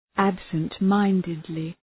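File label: "absent-mindedly", English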